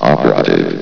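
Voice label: "operative", male; valve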